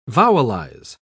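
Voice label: "vowelize", British English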